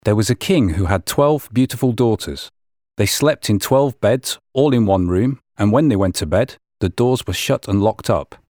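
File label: English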